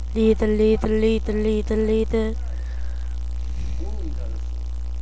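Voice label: "lead", spoken word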